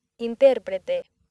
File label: "interprete", accent; laboratori fonetica; practiques accent